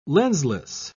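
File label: "lensless", British English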